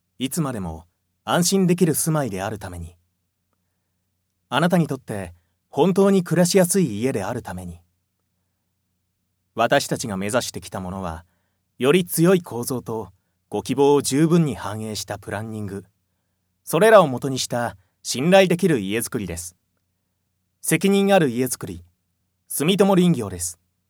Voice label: cm narration